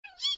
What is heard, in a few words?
Rabbit exclaim